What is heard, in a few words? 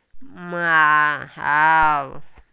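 "mian2ao3", Mandarin Chinese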